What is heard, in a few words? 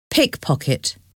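"pickpocket", English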